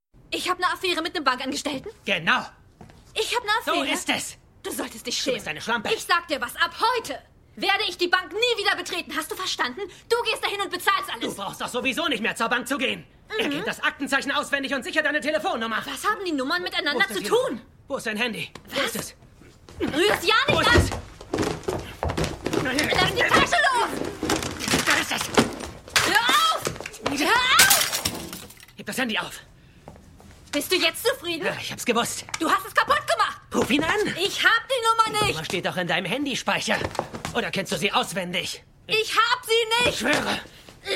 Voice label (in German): Synchron; Liebe Halal; Batoul